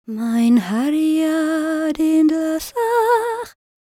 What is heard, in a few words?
WELSH F, World Voices